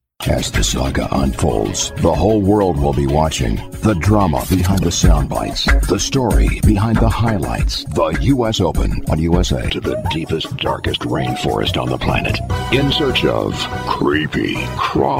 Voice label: Male, promos